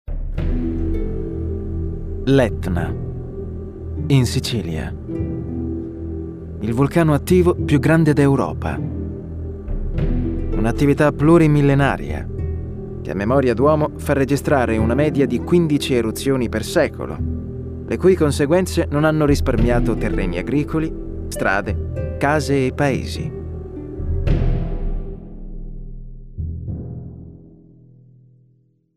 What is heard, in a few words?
italian male documentary